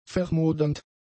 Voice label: "vermodernd", German; adjectives